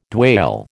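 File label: "dwale", American English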